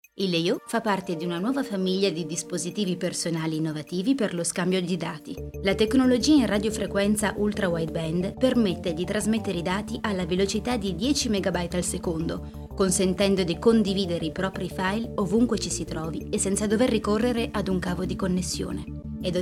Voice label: Intro Italian

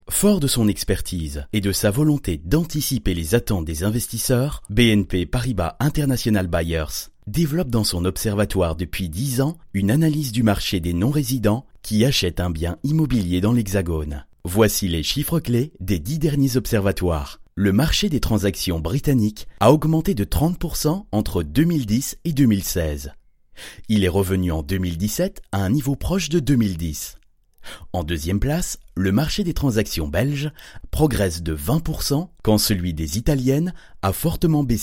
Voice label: Corporate; BNP

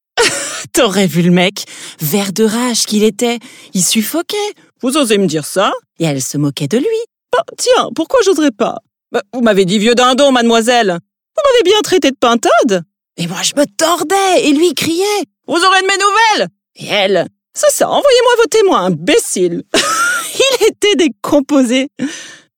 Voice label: Character

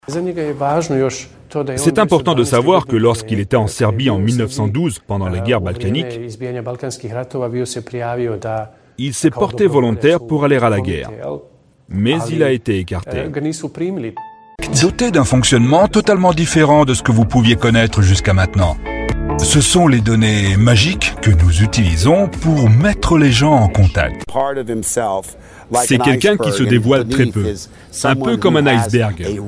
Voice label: VOICE OVER

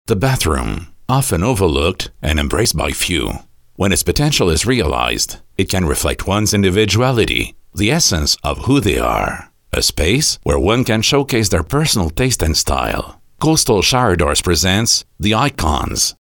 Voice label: commercial; COASTAL SHOWER DOORS

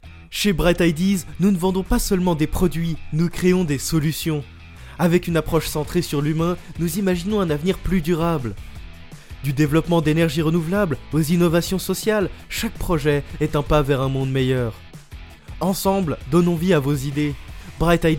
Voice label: Corporate

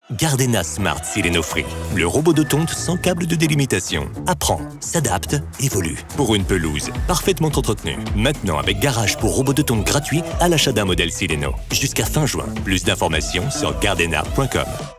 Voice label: commercial; Gardena Sileno Free

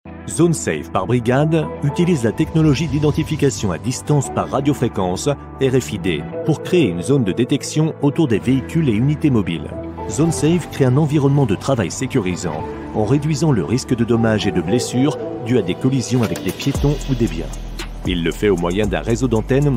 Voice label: corporate; brigade zonesafe

